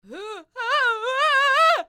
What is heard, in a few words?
Enemy Generic Human Spawn Disbelief